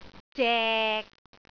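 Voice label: "zek6", Cantonese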